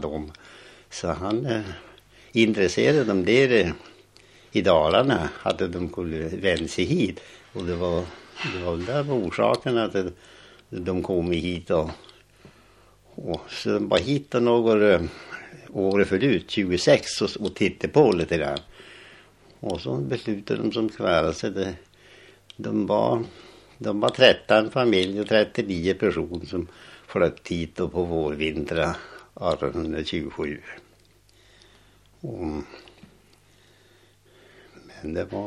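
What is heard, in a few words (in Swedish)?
Lit Jämtland, dialekter; Svenska sagor; Folkminnen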